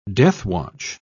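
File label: "deathwatch", British English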